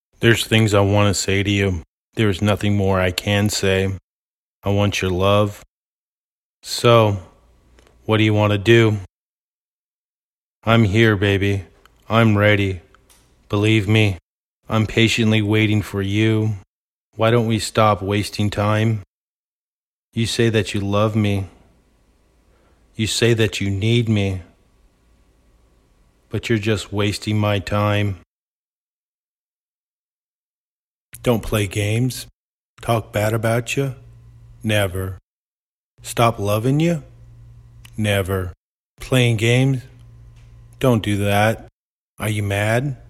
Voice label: spoken word 120 Bbm; acapella